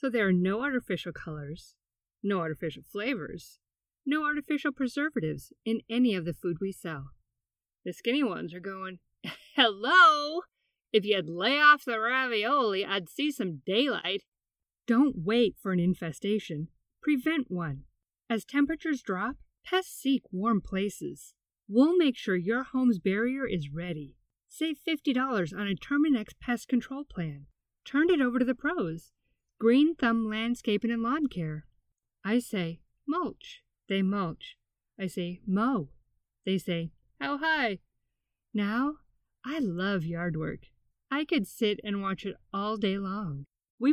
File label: commercial